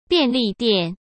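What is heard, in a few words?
"便利店", Mandarin; chinese flashcards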